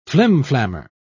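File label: "flimflammer", British English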